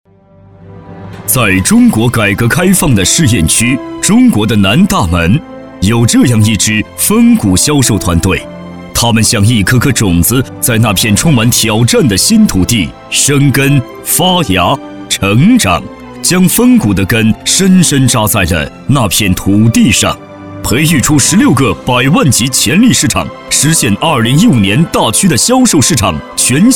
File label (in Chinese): 男 专题 深情颁奖类; 销售团队